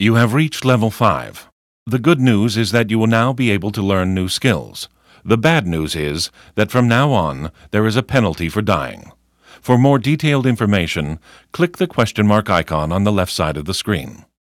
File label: tutorial voice; lineage2